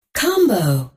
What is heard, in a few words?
Female Robot